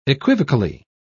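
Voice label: "equivocally", British English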